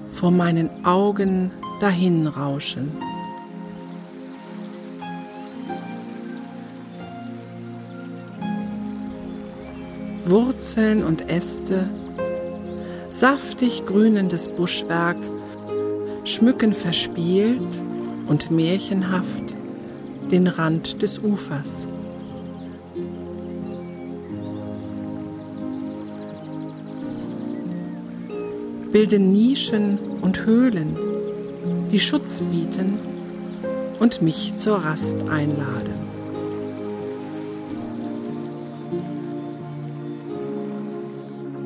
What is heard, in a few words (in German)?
heilung i natur am fluss, meditation; kurz meditation; heilung in der natur